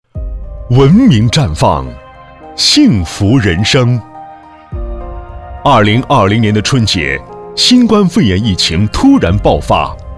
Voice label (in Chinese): 男,大气温暖风格; 文明花开在云端